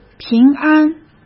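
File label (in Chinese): "平安", Mandarin; chinese-flashcards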